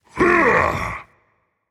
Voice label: rage; chaac